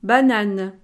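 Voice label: "banane", French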